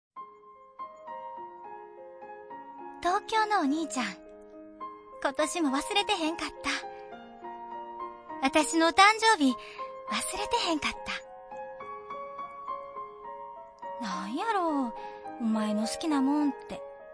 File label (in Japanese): CM 大阪弁 母＆女の子声